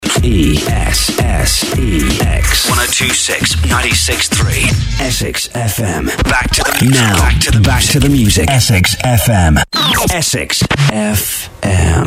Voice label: FM imaging; DB ESSEX FM